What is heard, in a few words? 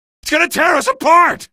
alert gunship, male; video games; npc; source engine; episodic; episode 1